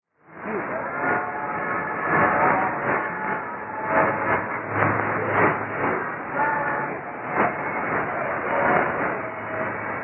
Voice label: 3325kHz; Radio Buka